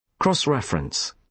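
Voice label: "cross-reference", British English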